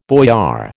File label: "boyar", American